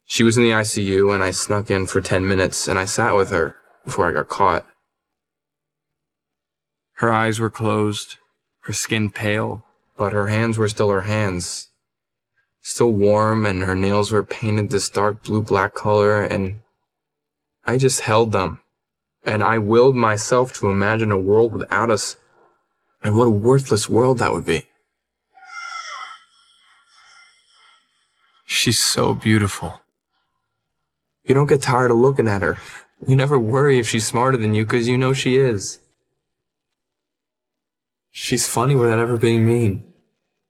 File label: vocals